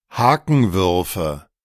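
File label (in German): "Hakenwürfe", German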